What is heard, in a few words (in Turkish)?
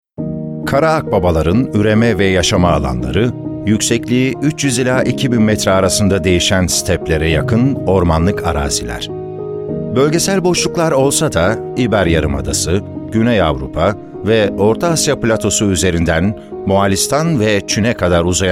belgesel